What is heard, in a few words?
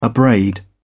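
"abrade", English, British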